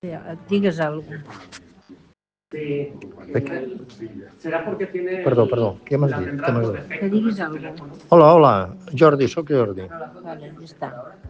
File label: Voice message